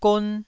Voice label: "gon1", Taiwanese syllable